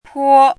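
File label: "pō", Chinese; 朴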